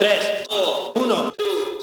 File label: Vocalizer Count; Knutrix Drum & Bass